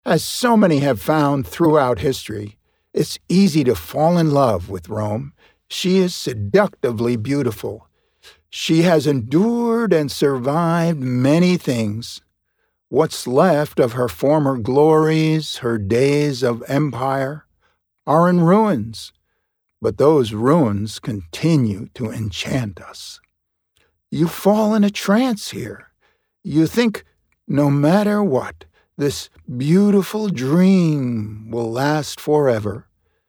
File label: Audiobook